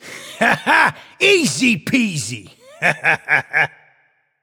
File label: nv yay; cupid